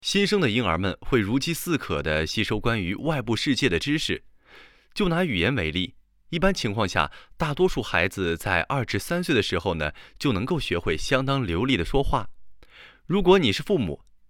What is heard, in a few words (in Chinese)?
多媒体 有声读物 出版物